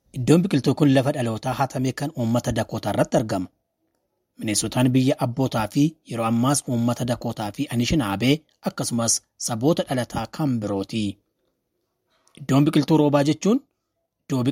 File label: audio in Oromo; Rain Garden; plantings at minnehaha and franklin streets